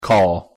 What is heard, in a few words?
"call", pronunciation en; give someone a call